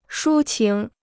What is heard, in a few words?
"抒情", Chinese